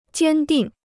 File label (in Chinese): "坚定", Chinese